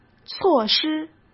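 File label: "措施", Mandarin Chinese; hsk; chinese-flashcards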